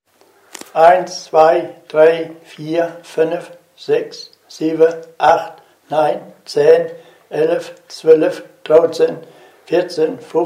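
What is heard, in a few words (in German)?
Zahlen, O-Ton Hunsrück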